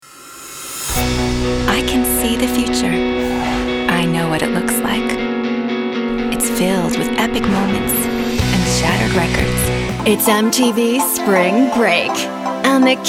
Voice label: F Commercial, voiceover womens